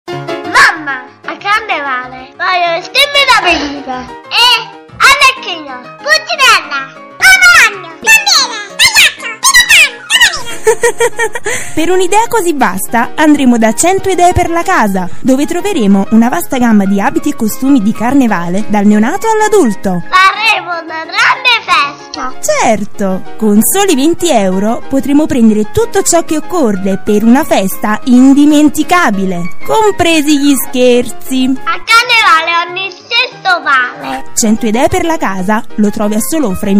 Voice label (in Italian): divertente bambino; voci